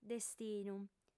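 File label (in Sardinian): "destinu", female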